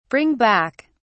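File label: "bring back", British English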